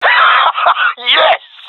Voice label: cheer; Counter Strike Model Pack; CS:GO